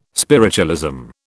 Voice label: "spiritualism", British

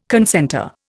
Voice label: "concentre", Indian

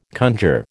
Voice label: "conjure", American

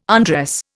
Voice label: "undress", Indian

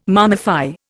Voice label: "mummify", Indian

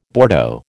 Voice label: "Bordeaux", American

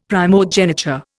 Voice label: "primogeniture", Indian; Dictionary

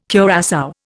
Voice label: "curassow", Indian

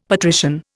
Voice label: "patrician", Indian; Dictionary

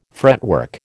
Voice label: "fretwork", American

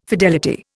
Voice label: "fidelity", Indian

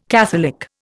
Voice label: "catholic", Indian

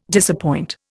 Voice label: "disappoint", Indian

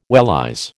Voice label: "wellies", American

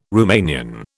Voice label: "Romanian", British

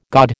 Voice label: "gaud", American

